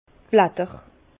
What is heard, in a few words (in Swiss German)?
"blatter", Bas Rhin; vin; feuillage